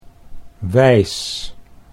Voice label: "wijs", Dutch

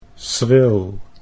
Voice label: "schril", Dutch

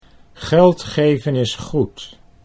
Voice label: geld geven is goed, Dutch

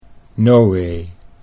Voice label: "Nowee", Dutch

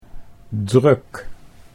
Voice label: "druk", Dutch

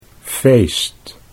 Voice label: "feest", Dutch; 'party' think of: 'feast'

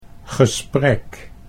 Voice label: "gesprek", Dutch

